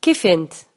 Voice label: how are you, maltese; Kif int?

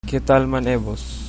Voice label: how are you, chabacano

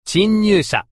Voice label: "chinnyusha" Japanese

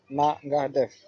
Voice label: howareyou, wolof

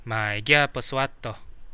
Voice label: write, kadazan; Mai gia posuato; Coastal Kadazan

